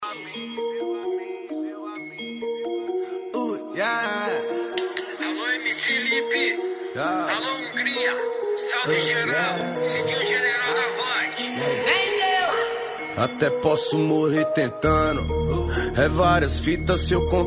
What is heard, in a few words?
rap